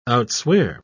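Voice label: "outswear", English, British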